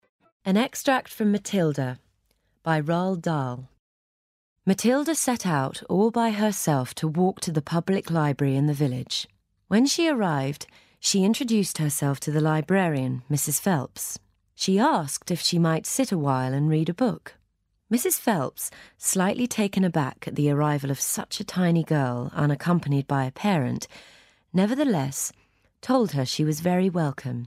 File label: Audiobook; Matilda